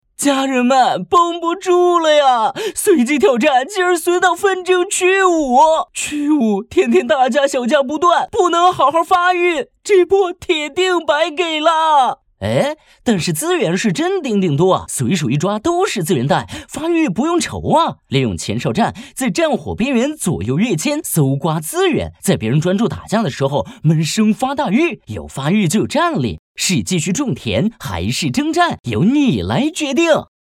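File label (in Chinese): 随机挑战, 四川话 男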